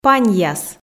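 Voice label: "паньяс", Komi, female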